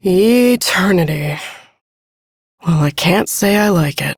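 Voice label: Ambient Female Sedentary, Dialog; Duviri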